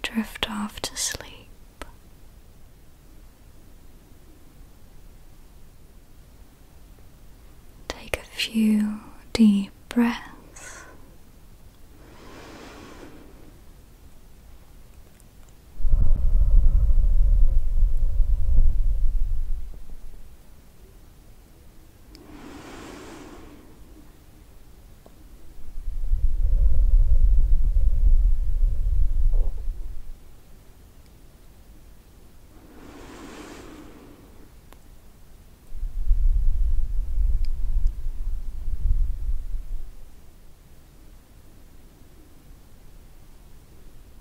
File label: Autumn Stillness Guided Meditation no music, no ambience